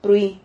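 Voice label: "prui", Spanish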